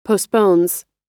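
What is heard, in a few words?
"postpones", American English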